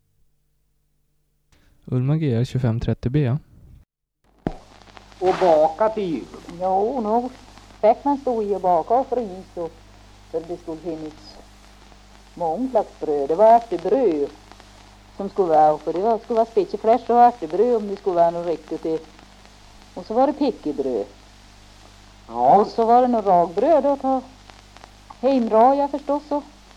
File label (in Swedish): Aspås, dialekter; Svenska sagor; Folkminnen